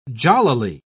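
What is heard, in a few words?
"jollily", British English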